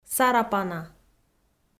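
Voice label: "сарапана", Komi, female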